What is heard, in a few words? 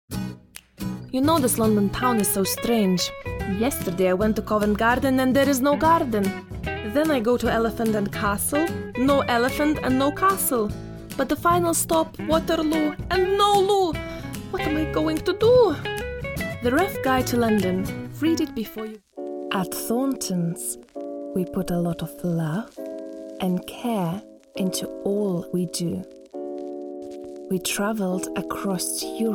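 English, general; GoLocalise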